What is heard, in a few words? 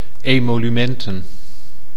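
"emolumenten", Dutch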